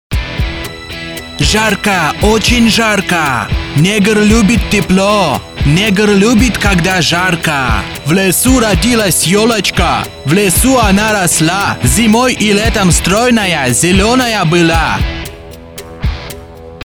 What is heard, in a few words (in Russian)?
negr russkogovoryashhij